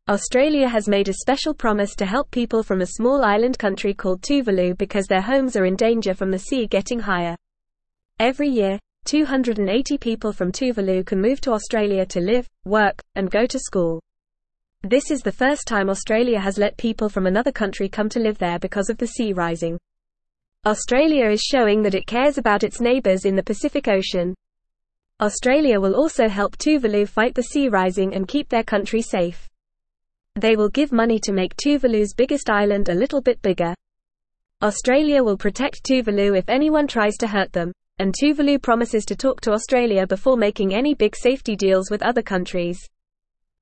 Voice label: Lower Intermediate FAST Reading, English; English Newsroom; Australia Helps Tuvalu as Water Rises Offers Suppor

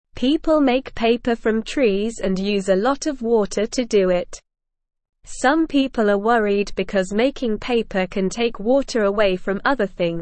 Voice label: English Beginner SLOW Reading; Paper Making and Water Use A Big Concern

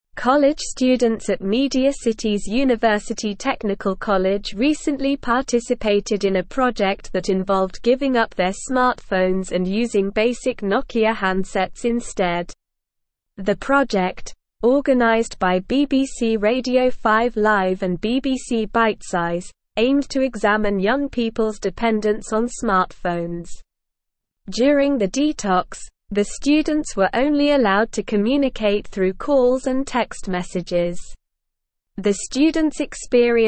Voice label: English SLOW Reading; Students Detox from Smartphones to Reflect on Usage

